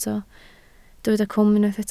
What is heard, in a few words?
"cwm", Welsh